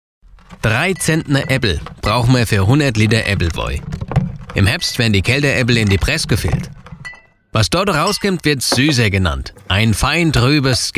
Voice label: hessisch aebbelwoi

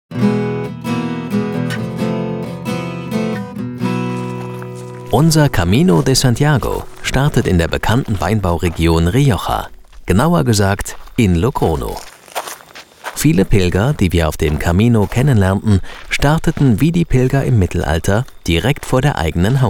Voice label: doku; jakobsweg

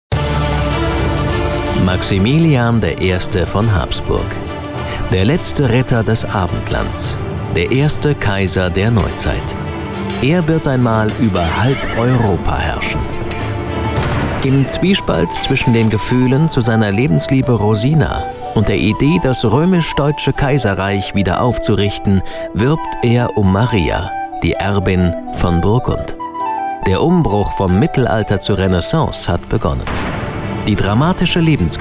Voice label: History, Sprecher; maximilian I

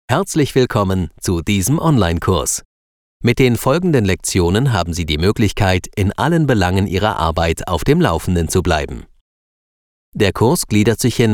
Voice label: sprecher elearning universal

